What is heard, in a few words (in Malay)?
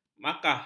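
"makkah", Malay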